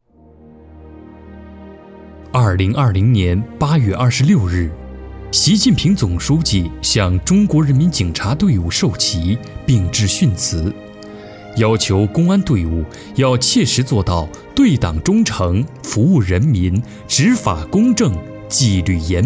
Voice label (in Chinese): 公安宣传